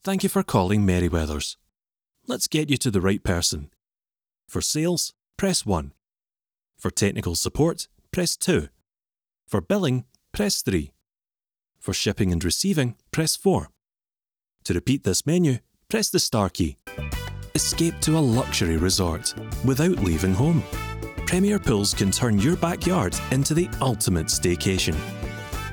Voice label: IVR On Hold Scottish; AdvantageIVR